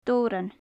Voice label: "dobhran", gaelic; Faclan Nàdair

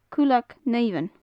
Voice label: "cuileag-shiomhain", gaelic; glow-worm; cuileag-shnìomhain; cuileagan-snìomhain; Faclan Nàdair

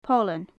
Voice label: "poilean", Gaelic; Faclan Nàdair

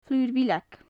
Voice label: "flur-bhileag", gaelic; Faclan Nàdair

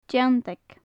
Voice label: "deanntag", gaelic; nettle; deanntagan, feanntagan; Faclan Nàdair

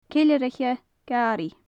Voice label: "ceileiriche-gàraidh", gaelic; Faclan Nàdair

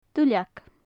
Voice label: "duilleag", Gaelic; leaf; duilleagan; Faclan Nàdair

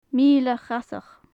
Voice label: "mile-chasach", Gaelic; Faclan Nàdair

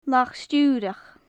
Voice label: "lach-stiuireach", Gaelic; Faclan Nàdair

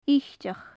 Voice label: "iteach", gaelic; Faclan Nàdair

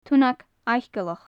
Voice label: "tunnag-fhaiceallach", Gaelic; goosander; lach fhiacailleach; lachan-fiacailleach; Faclan Nàdair

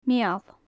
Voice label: "mial", gaelic; Faclan Nàdair

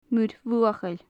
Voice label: "muir-bhuachaill", Gaelic; great northern diver; muir-bhuachaille; Faclan Nàdair

